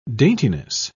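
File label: "daintiness", British English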